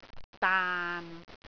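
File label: "daan6", Cantonese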